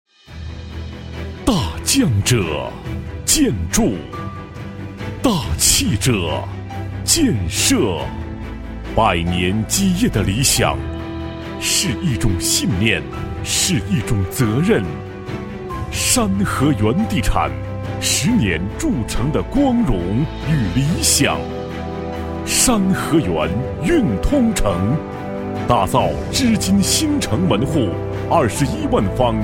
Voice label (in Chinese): 男 广告 房地产, 国语男声配音 磁性; 山禾源